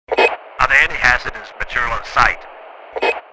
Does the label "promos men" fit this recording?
No